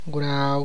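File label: Tlacochahuaya Zapotec; lizard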